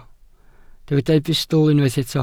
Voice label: "bustl", welsh

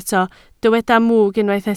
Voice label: "mwg", Welsh

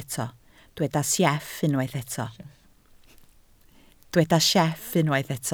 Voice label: "sieff", Welsh

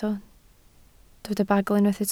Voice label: "bagl", Welsh

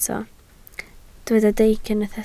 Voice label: "deic", Welsh

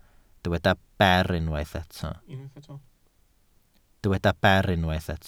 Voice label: "ber", Welsh

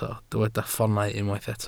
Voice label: "ffoonau", Welsh

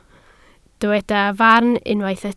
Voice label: "farn", Welsh

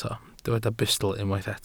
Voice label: "bustl", welsh

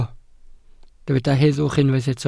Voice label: "heddwch", Welsh

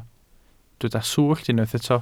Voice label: "swllt", welsh

